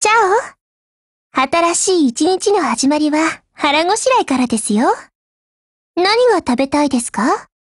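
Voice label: HELLO, Japanese; GF Alarm; AR70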